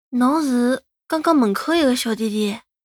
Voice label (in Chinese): 男孩 对话